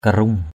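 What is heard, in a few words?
"karung", Eastern Cham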